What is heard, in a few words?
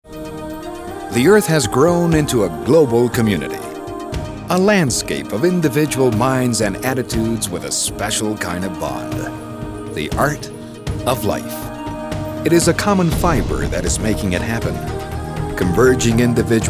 Contemporary Pitch English, locucion